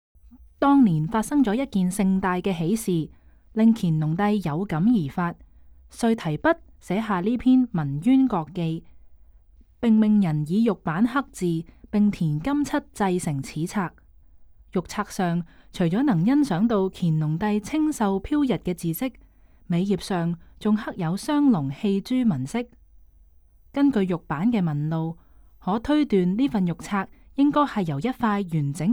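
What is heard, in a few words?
Cantonese, audio guide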